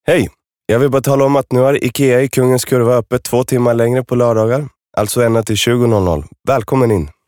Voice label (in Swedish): se m reklam